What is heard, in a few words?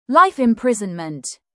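"life imprisonment", British; Crime and punishment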